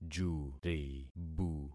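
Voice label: "juribu", concatenated syllables; Affixing experiment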